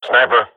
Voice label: radio bot under fire sniper, swat; Counter Strike Model Pack; CS:GO